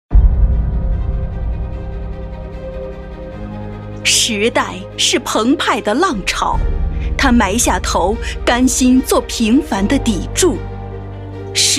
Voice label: 女 颁奖配音 大气激情; 大气激昂; 《乌鲁木齐分行》

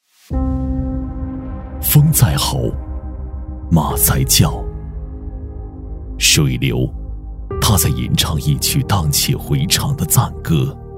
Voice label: 男 品牌宣传片; 壶口瀑布; 喊麦激情; 大气浑厚

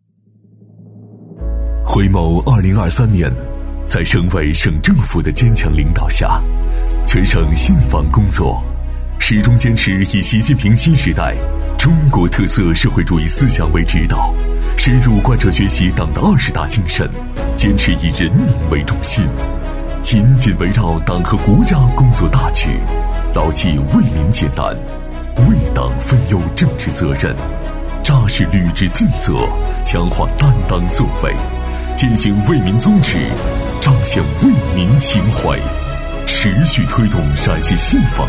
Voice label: 男 专题 抒情; 陕西省信访局; 男B3-磁性稳重; 成熟厚重

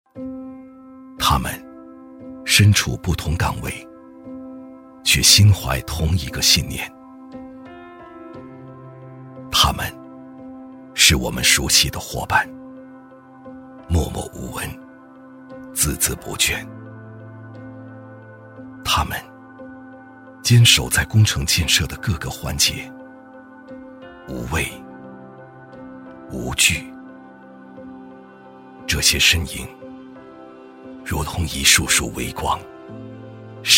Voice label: 男 党建旁白 低沉走心; 闪耀的微光; 质感磁性

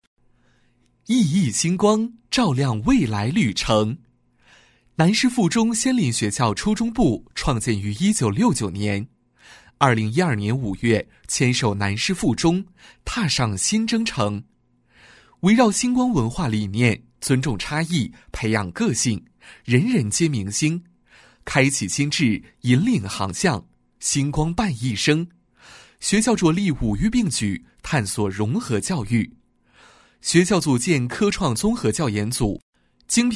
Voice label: 男 学校宣传 年轻 沉稳; 南师附中; 年轻活力; 年轻舒缓

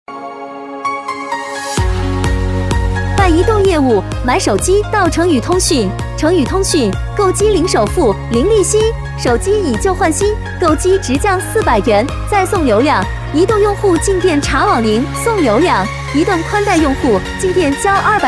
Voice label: 激情甜美女; 激情力度; 买手机就到诚语通讯

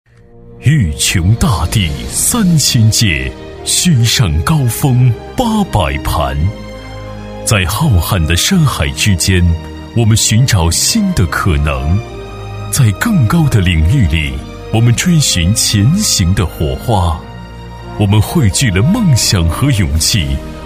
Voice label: 男 企业宣传 大气宣传片 厚重; 男D8-硬朗力度; 大气浑厚

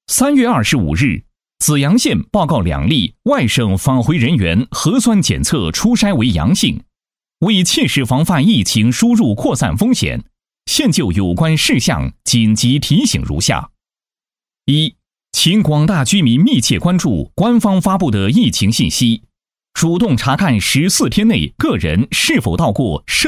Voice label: 男 紧急提醒 正气, 正气明亮 大气浑厚; 平利县疫情防控指挥部办公室紧急提醒

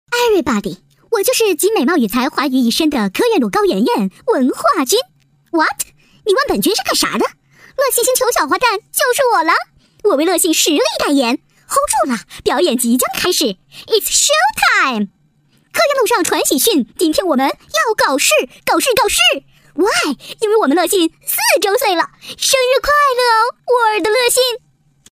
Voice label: 女 【调侃搞怪】; 幽默搞怪; 飞碟说MG; PAPI酱

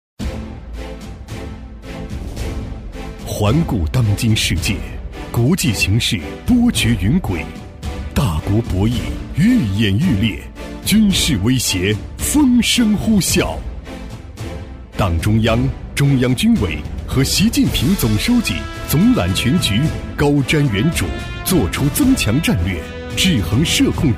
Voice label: 男 部队汇报片 硬派军队; 《强军有我》

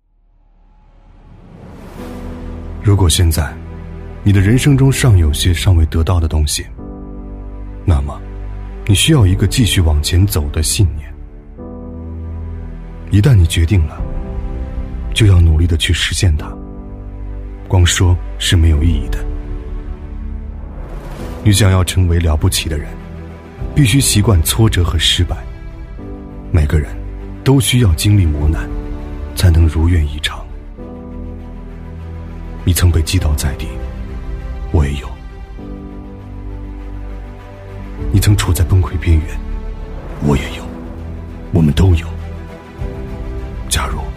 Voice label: 男 走心旁白 品质自然; 《冠军》

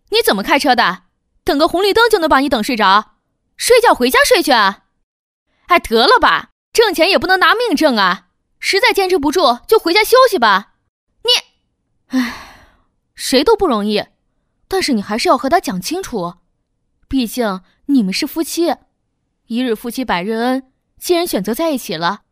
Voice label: 女 角色 暴躁年轻乘客女; 素人自然

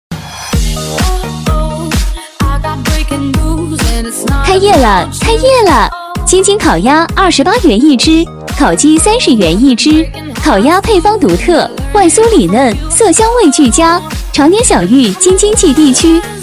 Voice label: 欢快女 烤鸭开业活动; 激情力度

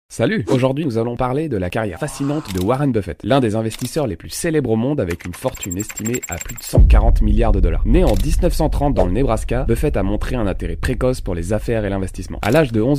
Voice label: 法语男声

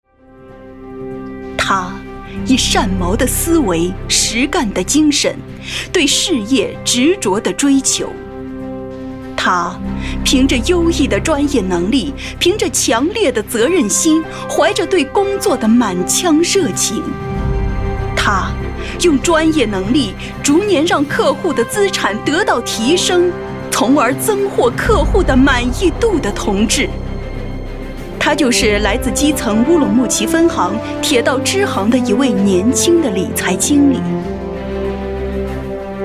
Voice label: 女 颁奖配音 银行颁奖 超激情; 大气激昂

